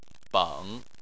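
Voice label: "beng" Chinese, third tone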